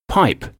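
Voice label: "pipe", English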